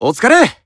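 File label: Voice Emotion Greet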